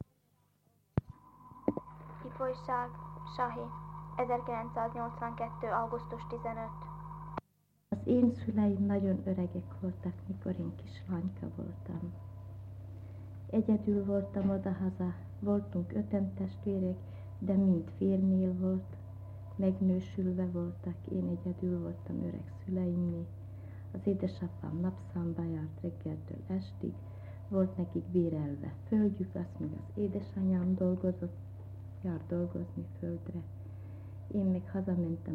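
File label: dialect collections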